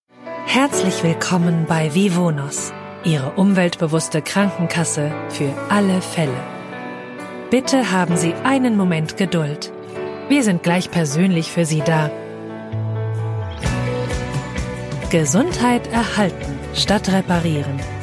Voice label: german ivr

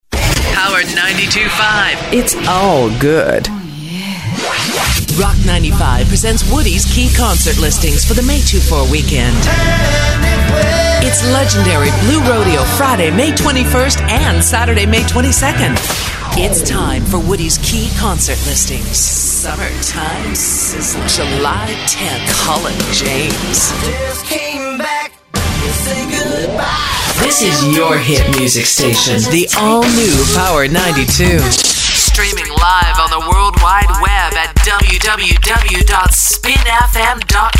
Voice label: english canadian promos

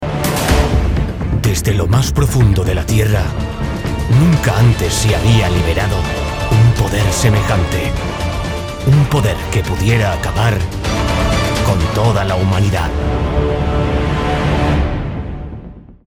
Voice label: spanish movie trailers

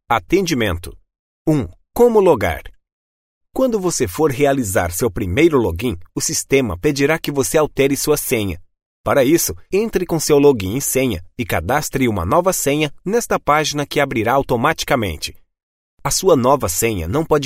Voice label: portuguese brazilian training e-learning

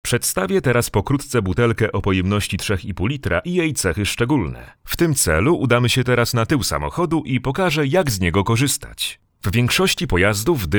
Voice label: polish automotive; Ein professioneller polnischer Sprecher

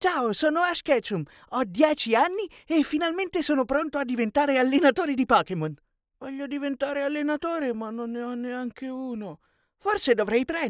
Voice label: italian animation

